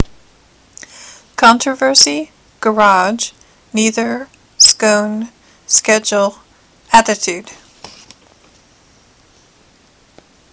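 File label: oreland pa usa female, accent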